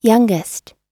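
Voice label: "youngest", US English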